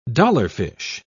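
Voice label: "dollarfish", British English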